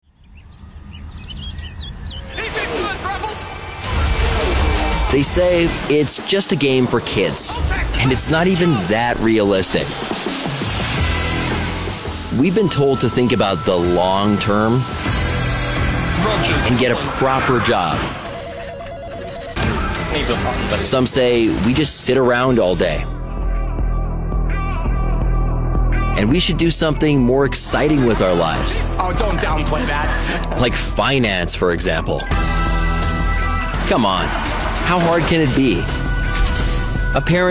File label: Trailer; Porsche